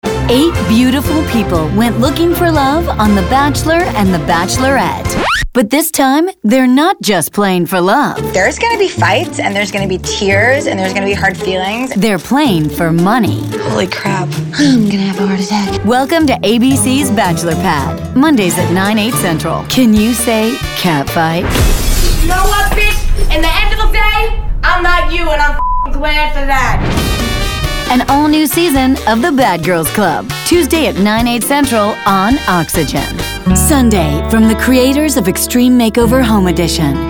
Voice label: Promo

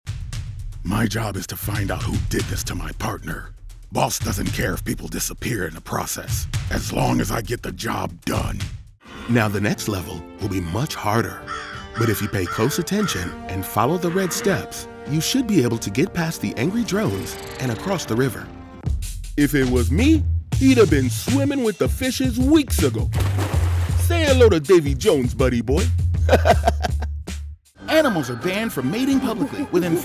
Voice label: Character

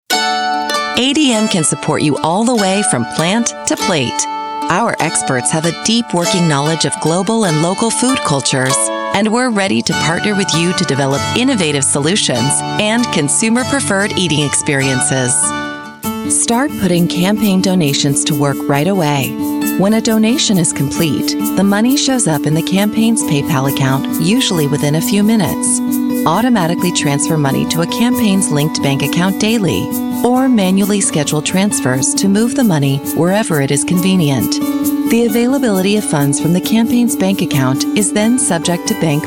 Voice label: Corporate Narration

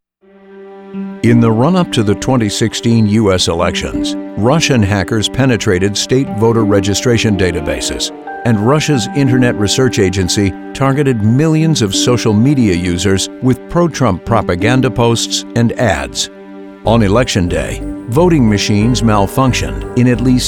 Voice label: Narration

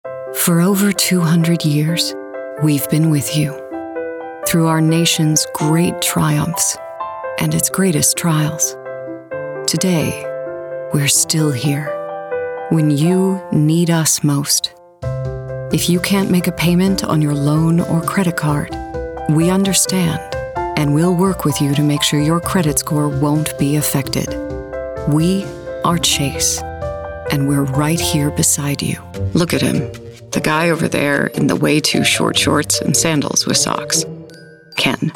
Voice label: commercial

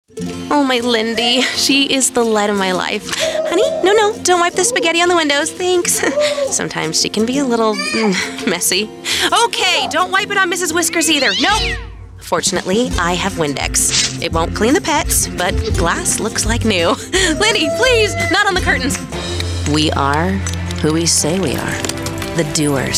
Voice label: commercial

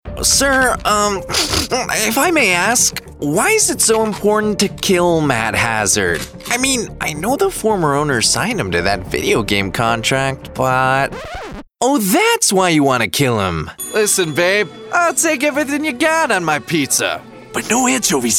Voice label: Animation

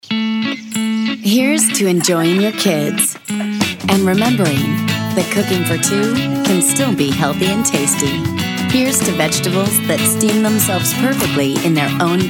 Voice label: commercial

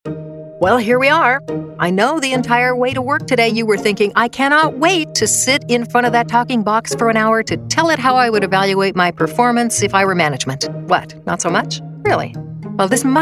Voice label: Elearning

